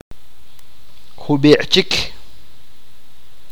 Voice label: a little more, achi